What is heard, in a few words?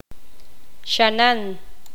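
"zancudo", Poqomam, female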